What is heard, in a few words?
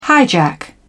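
"hijack", British English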